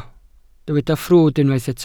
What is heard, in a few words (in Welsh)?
"ffrwd" Welsh